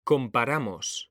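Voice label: "COMPARAMOS", Spanish; mekanta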